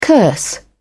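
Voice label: "curse", British English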